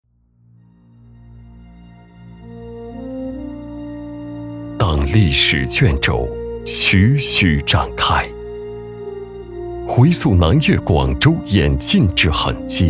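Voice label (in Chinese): 男【历史讲述】; 党的故事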